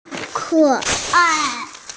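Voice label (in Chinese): "ke3ai4", Mandarin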